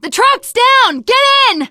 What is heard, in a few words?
teen girl, voice; Left 4 Dead; Source Engine; video games